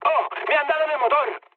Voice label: damaged engine, mood med, speech; War Thunder

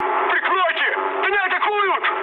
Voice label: aircraft damaged, mood med, speech ru

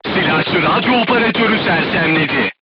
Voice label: voice message machine gunner contused, turkish

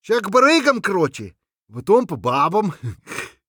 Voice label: scav mutter, voice; Escape from Tarkov; scav6

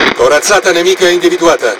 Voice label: Speech Detection Enemy Battleship, IT; World Of Warships

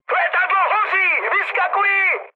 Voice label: on fire, speech cze, mood high; War Thunder

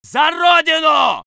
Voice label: voice sov infantry

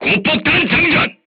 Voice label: voice message load HE, korean, crew tanks

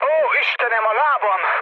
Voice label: damaged pilot, speech hun; War Thunder

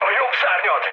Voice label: right wing, speech hun; War Thunder

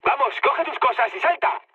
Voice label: bailout, mood med, speech; War Thunder

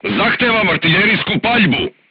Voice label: voice message art command, crew tanks serbian

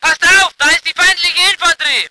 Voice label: voice ger vehicle

